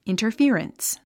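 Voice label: "interference", English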